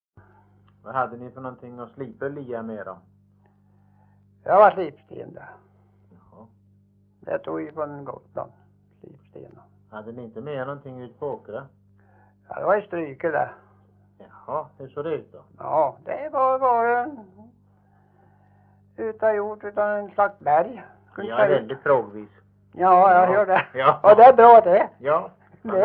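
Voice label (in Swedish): Ukna Småland, dialekter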